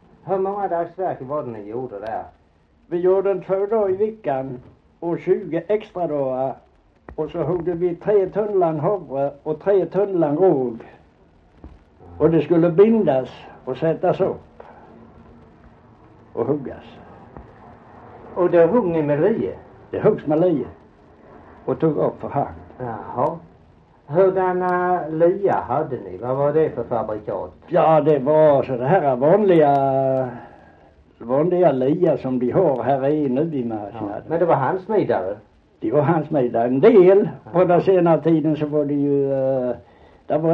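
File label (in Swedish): Holm Halland, dialekter; Folkminnen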